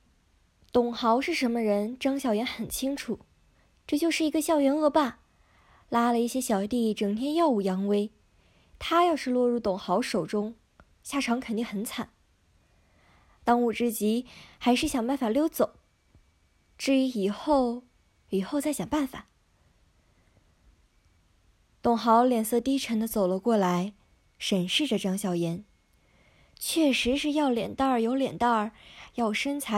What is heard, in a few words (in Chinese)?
小说旁白